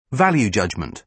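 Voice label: "value judgment", British English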